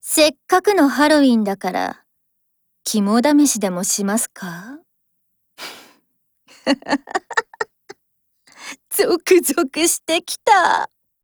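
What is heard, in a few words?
Japanese; MAC10; ALL HALLOWS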